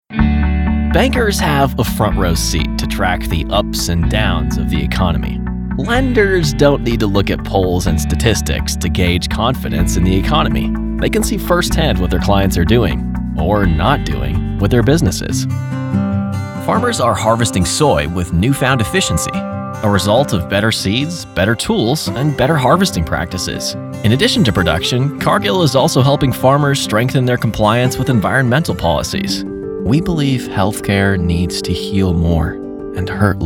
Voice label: male narration